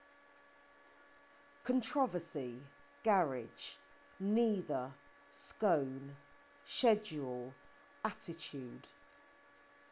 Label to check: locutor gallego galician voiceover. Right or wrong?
Wrong